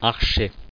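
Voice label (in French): "archet" French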